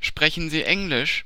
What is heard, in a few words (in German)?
German Sprechen Sie Englisch